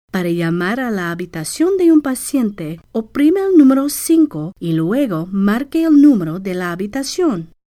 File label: Spanish